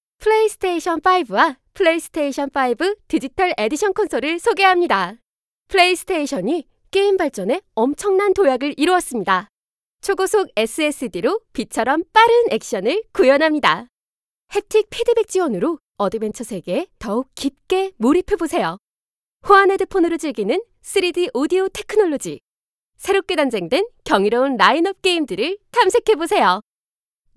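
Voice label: Korean, female, explainer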